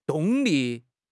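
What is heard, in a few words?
"动力", Chinese; HSK6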